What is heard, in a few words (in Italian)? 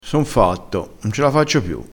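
"fatto", vocabolario dialettale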